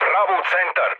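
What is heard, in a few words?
Serbian bomb success mood med, speech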